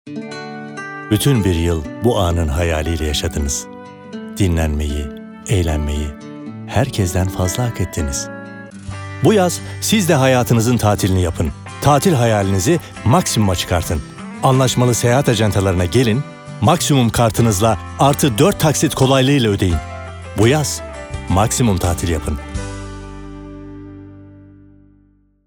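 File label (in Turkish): sicak samimi, seslendirme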